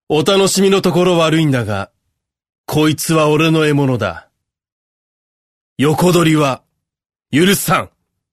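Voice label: narration male natural; m kakkoii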